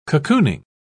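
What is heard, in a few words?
"cocooning", English, British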